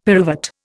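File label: "pyruvate", Indian English; Dictionary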